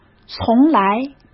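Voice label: "从来", Mandarin Chinese; chinese-flashcards; hsk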